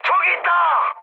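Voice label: Korean enemy detected mood high; War Thunder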